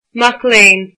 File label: student, irish